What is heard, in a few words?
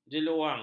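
"jeluang", Malay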